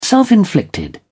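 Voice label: "self inflicted", British English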